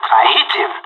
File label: enemy down, pirate; Counter-Strike Global Offensive